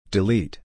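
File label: "delete", English pronunciation; long i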